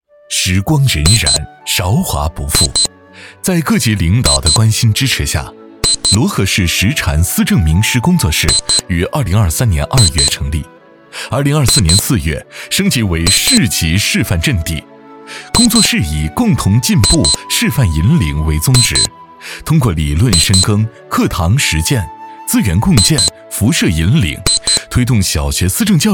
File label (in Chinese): 男 温暖宣传